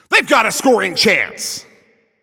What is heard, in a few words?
Shot On Goal, Announcer